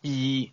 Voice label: "two", cantonese

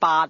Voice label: "eight", cantonese

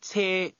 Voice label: "car", Cantonese

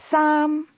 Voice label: "three", cantonese

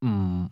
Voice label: "not", cantonese

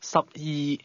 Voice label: "twelve", cantonese

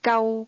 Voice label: "enough", cantonese

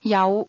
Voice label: "have", cantonese

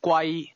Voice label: "expensive", Cantonese

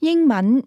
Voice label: "english", Cantonese

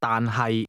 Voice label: "but", cantonese

